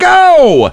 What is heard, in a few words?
count go, Spanish